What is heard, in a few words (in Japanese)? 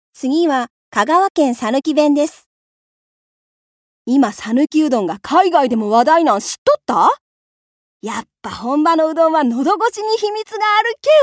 方言